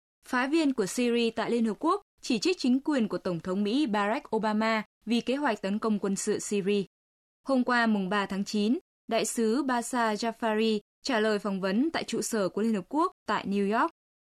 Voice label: news